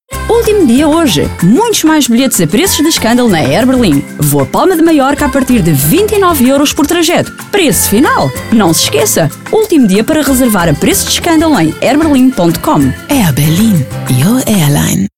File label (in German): Werbung; Air Berlin